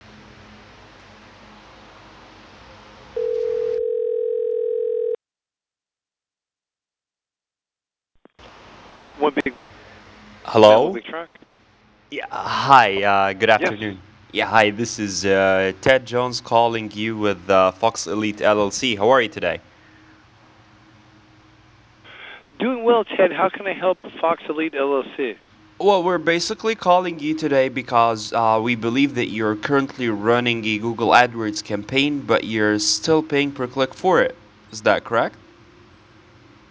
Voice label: recording selling ads